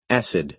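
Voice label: "acid", American English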